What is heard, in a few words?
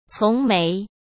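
"从没", Mandarin Chinese; hsk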